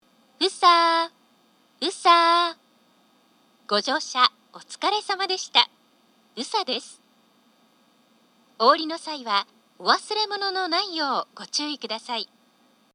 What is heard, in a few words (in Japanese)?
全ホーム 到着放送; 宇佐